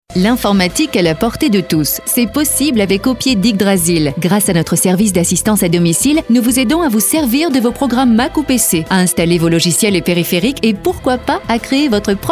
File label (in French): message radio